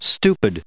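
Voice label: "stupid", US English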